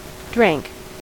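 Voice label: "drank", US English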